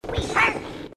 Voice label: we hurt, grunts dialogue

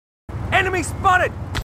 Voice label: marines, aussie; h2dialogue

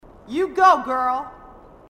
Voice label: marine sassy

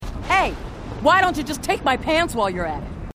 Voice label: female marine

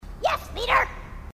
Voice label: grunt; h2dialogue; grunt crazy

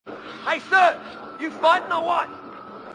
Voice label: hey sir you fighting or what, marine aussie; h2dialogue

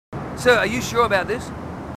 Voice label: marines, aussie; h2dialogue

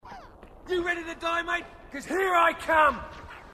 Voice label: marine, aussie; h2dialogue

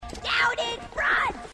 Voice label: down in front, grunts

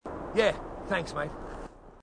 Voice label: marine, aussie; h2dialogue

